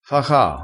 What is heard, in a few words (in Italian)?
"fa caa", crespinese